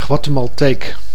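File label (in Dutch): "Guatemalteek", Dutch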